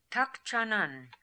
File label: "Tak tschan nan", pronounciations; Fourth Way; Beelzebub